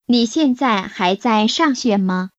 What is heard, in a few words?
Mandarin Conversation